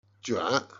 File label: "jian", Chinese